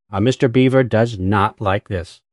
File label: upset; fertig classic games